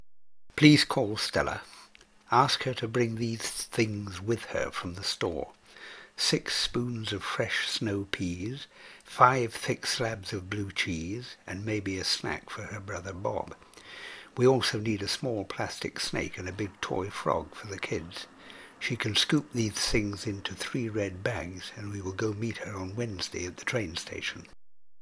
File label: RP accent; Accent Quiz